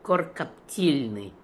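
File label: "Korkaptilnian", pronunciations; RUS; Fourth Way; Beelzebub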